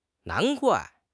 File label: "nan2 guai4", 汉语口语; 汉语口语新时代-初级下